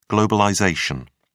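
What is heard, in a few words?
"globalisation", British English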